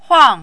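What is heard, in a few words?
"huang", fourth tone, Mandarin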